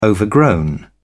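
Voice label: "overgrown", British English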